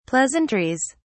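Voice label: "pleasantries", British English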